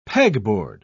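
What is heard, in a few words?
"pegboard", English, British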